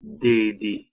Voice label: "dedi", Proto Germanic